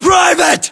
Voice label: US rank private, battlechatter, english